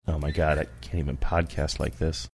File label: quietly saying oh my god i cant even podcast like this